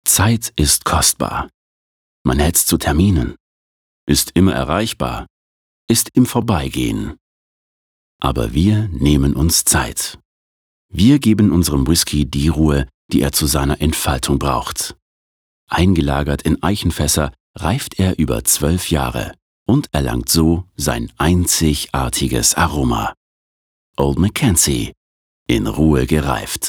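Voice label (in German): Werbung, Sanft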